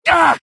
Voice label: medic taunt exert; video games; source engine; tf; taunts